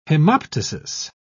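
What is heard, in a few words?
"hemoptysis", British English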